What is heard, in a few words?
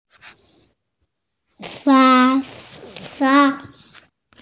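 "sha1 fa1", Mandarin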